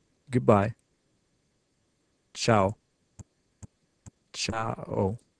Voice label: Italian Good Bye

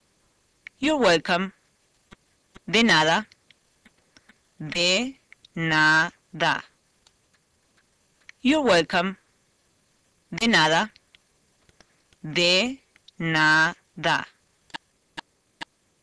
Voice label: Spanish Welcome

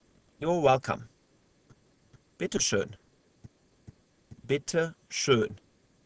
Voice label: German Welcome

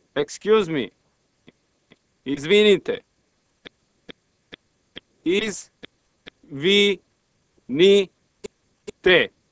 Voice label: Serbo Croatian Excuse

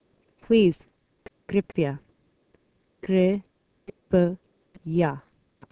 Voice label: Hindi Please

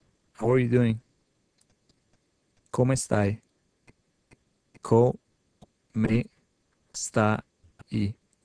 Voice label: Italian Greet

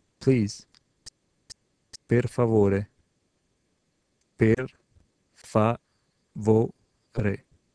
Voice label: Italian Please

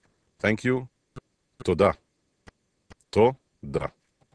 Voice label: Hebrew Thanks

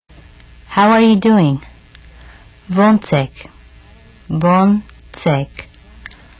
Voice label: Armenian Greet

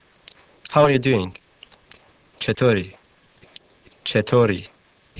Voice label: Persian Greet

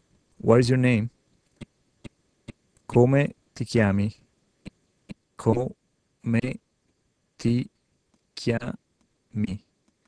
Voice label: Italian Name

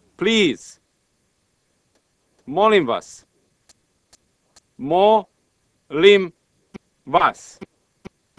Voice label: Serbo Croatian Please

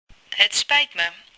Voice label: Dutch Excuse

